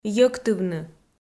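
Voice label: "йӧктывны", Komi, female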